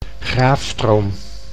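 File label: "Graafstroom", Dutch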